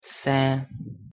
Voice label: "xá" Tetum; thee-in-andere-talen

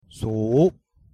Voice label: "茶" Wu; thee-in-andere-talen

